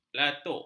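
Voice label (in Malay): "latuk", Malay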